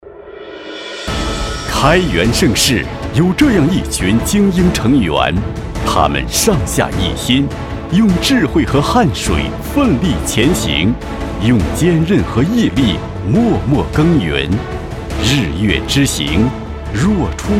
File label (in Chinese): 【颁奖】, 男; 开元